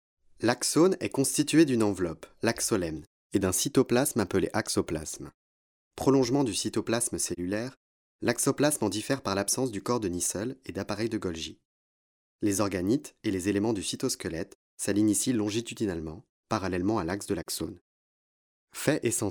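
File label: French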